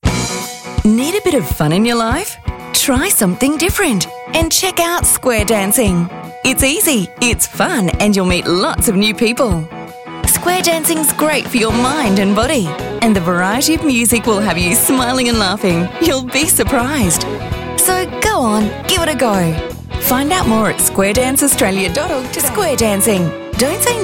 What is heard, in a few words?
announcer female